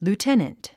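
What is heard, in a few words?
"lieutenant", English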